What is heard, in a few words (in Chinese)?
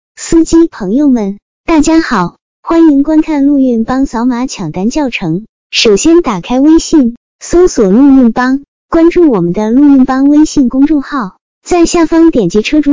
温柔女